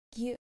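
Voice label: "gyu", Japanese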